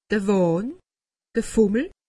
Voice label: "wahn", alsace; medecine

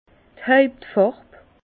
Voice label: "hauptfarb", Bas Rhin; vin; teinte principale

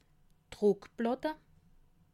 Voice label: "druckplatte", alsace

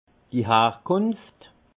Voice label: "harkunft", alsace; vin; appellation

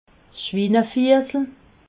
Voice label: "schwinefiessel", alsace

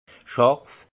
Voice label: "scharf", alsace; vin; coupant

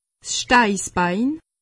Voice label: "steissbein", alsace; medecine

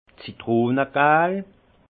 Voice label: "citrone gal", alsace; jaune citron; vin

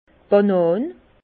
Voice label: "banan", Bas Rhin; vin; banane

